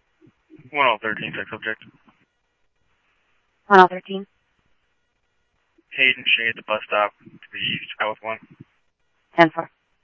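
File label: police department, scanner audio trunk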